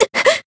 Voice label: Voice damaged, jp; hisumena

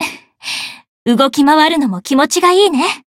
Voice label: win, jp; isuka

